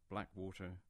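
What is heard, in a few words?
"Blackwater", English; place-names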